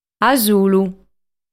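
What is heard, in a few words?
"Asulu", Sardinian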